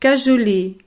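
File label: "cajoler", French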